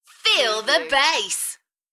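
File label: British female Feel the bass Enthusiastic; beanstalk audio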